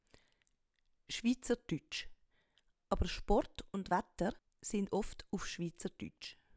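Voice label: "Schwiizerduetsch", Swiss German; Wortschatz Kapitel 0; Hauptwortschatz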